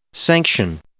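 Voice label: "sanction", English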